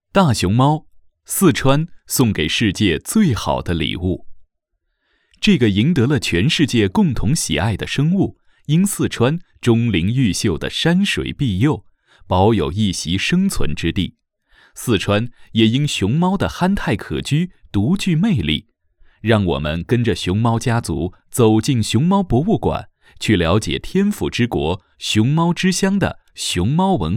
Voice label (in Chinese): 项目介绍, 投标解说, 熊猫博物馆招投标片配音稿